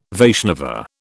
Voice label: "Vaishnava", British

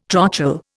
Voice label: "trochal", Indian

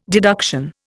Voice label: "deduction", Indian

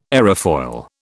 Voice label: "aerofoil", British

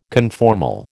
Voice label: "conformal", American

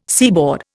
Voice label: "seaboard", Indian

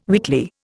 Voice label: "weakly", Indian

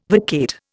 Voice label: "vacate", Indian

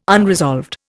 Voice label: "unresolved", Indian

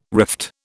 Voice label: "rift", British

